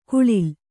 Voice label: "ಖಳಲ್", Kannada

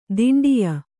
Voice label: "ದಿಂಡಿಯ", kannada

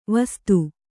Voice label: "ವಸ್ತ", Kannada

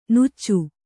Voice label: "ನುಚ್ಚು", Kannada

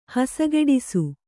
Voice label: "ಹಸಗೆಡಿಸು", kannada

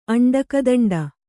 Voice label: "ಅಂಡಕದಂಡ", kannada

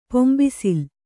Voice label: "ಪಂಬಸಲೆ", kannada

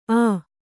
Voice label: "ಆ", Kannada

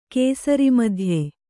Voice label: "ಕೇಸರಿಮಧ್ಯೆ", Kannada

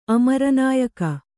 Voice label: "ಅಮರನಾಯಕ", Kannada

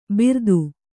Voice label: "ಬಿರ್ದು", Kannada

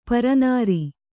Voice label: "ಪರನಾರಿ", Kannada

